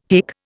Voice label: "hic", Indian